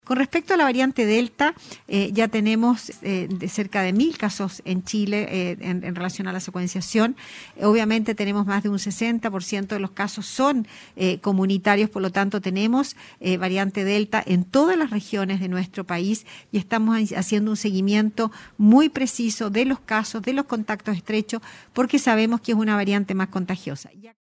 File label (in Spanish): cuña; DELTA